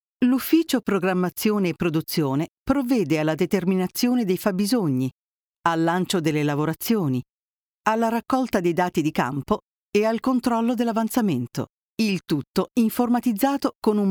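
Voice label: Corporate video, voice over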